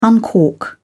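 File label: "uncork", English, British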